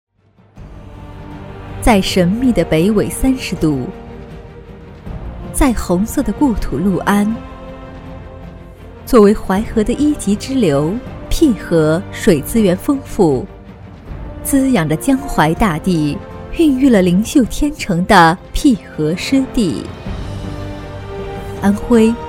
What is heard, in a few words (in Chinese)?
女 专题 湿地公园; 淠河湿地公园